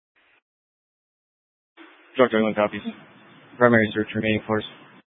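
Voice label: Incident Tactical, scanner audio recording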